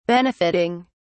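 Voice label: "benefitting", English, British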